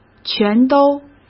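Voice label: "全都", Mandarin Chinese; chinese flashcards; hsk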